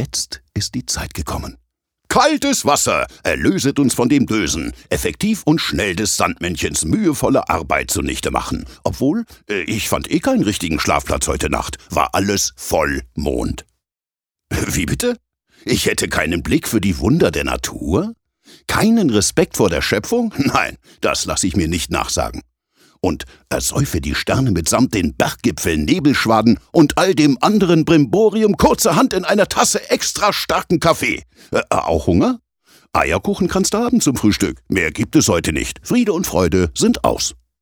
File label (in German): Schauspiel Dynamisch